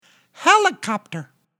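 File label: "helicopter", English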